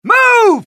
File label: suppress, dialogue, US; mohaa